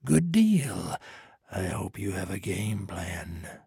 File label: trader male neutral quest accepted